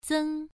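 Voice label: "zeng1", Chinese pronunciation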